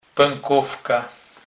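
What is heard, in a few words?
"pekowka", Polish, Kurpie dialect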